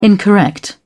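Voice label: "incorrect", English, British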